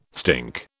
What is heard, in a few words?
"stink", British